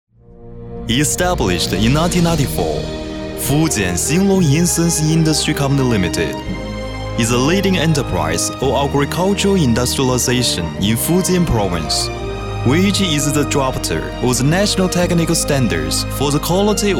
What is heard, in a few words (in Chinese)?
男英 外语 国内英语 大气; 企业兴隆